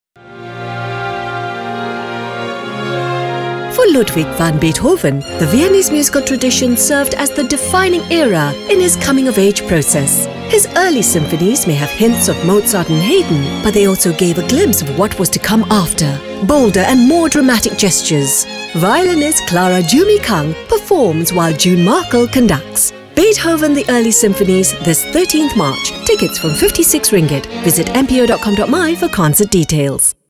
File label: ENG; Beethoven The Early Symphonies